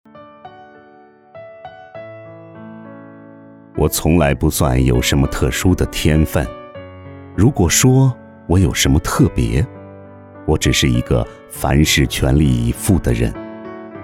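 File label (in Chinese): 讲述口语和抒情之间风格人物自述; 芳香集团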